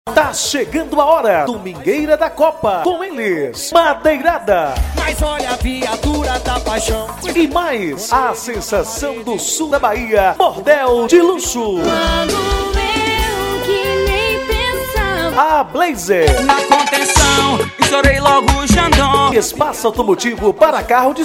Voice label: locutor rádio; domingueira da copa; radio bahia fm

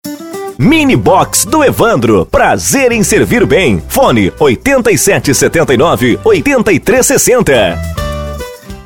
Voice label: VOZ IMPACTO GRAVE vinheta para mercado supermercado; minibox; mini bipermercado; vinhetas spot chamada

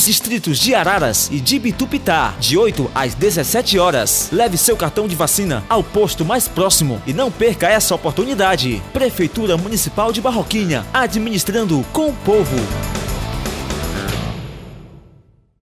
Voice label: spot hepatite

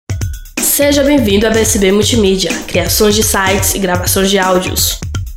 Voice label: vinhetas seja bem vindo; bsb multimidia

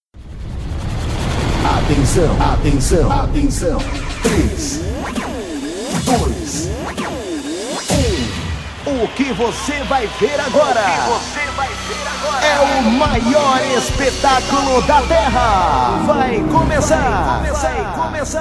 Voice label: vinheta

